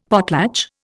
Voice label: "potlatch", Indian; Dictionary

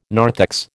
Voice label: "narthex", American

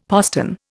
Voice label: "postern", Indian; Dictionary

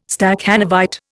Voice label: "Stakhanovite", Indian

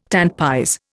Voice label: "tant pis", Indian

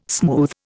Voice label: "smooth", Indian

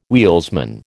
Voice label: "wheelsman", American

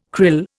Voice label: "krill", Indian

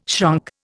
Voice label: "shrunk", Indian

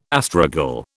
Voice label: "astragal", British

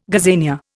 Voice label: "gazania", Indian

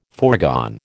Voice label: "foregone", American

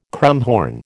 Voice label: "krummhorn", American

